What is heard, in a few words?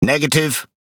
Pirate Speech Quick Commands No Way; World Of Warships